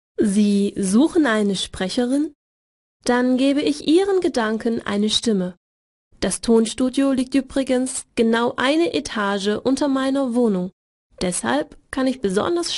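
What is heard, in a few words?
German, female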